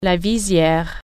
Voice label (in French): "visor", French